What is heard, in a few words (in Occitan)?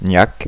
"nhac", Gascon